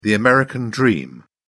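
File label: "american dream", English, British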